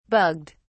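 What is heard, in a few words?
"bugged", British English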